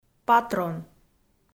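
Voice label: "патрон", Komi, female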